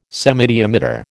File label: "semidiameter", American